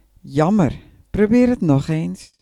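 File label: check answer, Chinese; gcompris-data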